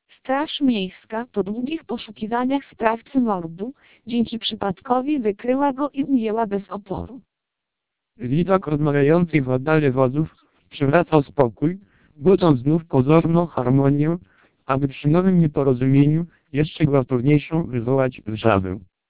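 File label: Polish speech, TWELP 700 bps vocoder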